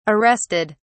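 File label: "arrested", British English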